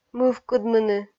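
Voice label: "мывкыдмыны", Komi, female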